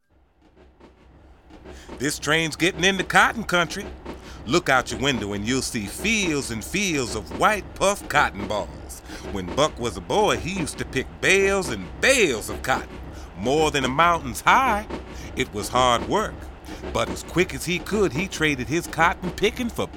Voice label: Narration